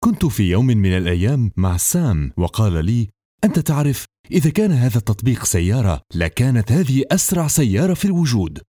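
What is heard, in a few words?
Lebanese narration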